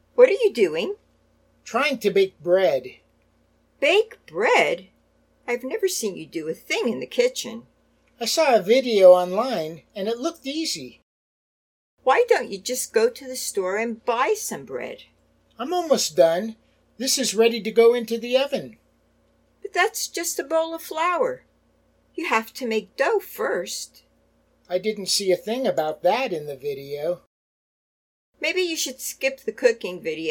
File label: Natural Dialog Complete